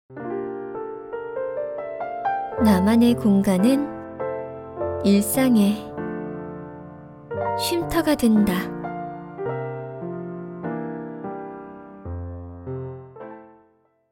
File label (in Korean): 인테리어 광고 따뜻한 여리여리한 톤